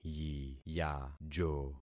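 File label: "yiyajo", concatenated syllables; Affixing experiment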